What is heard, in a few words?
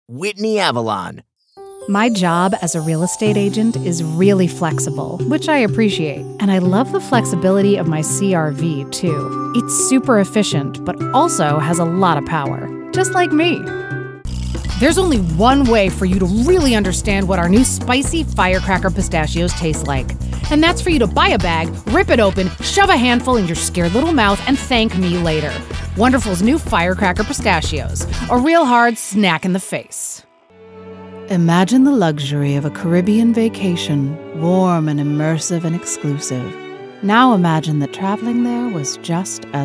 commercial vo